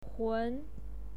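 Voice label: "hun", Mandarin Chinese pinyin, second tone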